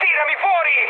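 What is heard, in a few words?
Italian bailout mood high, speech; War Thunder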